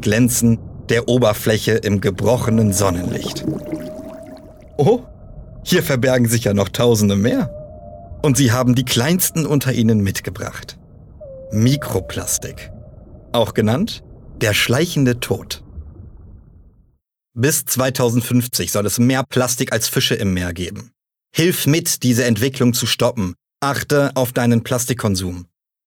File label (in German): Junge; Meeresbewohner